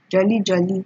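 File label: "jolly jolly", Nigerian Pidgin, female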